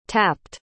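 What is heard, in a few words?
"tapped", English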